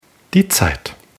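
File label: "Zeit", German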